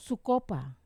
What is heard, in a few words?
"kopa", Wamesa; to jump